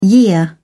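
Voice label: "year", British English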